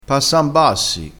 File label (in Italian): "passan bassi", crespinese